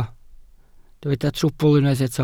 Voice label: "trwbl", Welsh